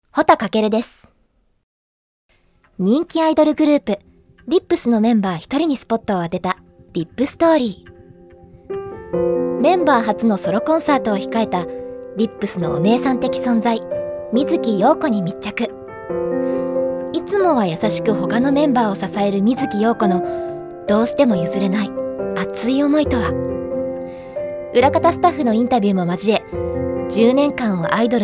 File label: narration sample